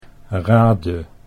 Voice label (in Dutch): "raadde", Dutch